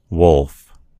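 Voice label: "wolf", American English; gcompris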